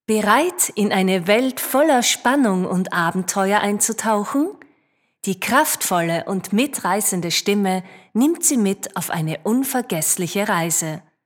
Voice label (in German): Kraftvoll